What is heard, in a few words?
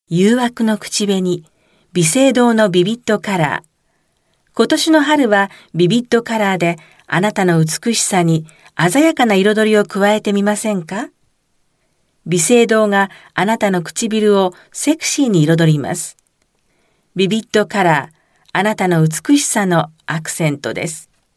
Japanese Voice Sample, Japanese Female